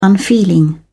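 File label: "unfeeling", English, uk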